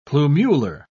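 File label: "plumular", British English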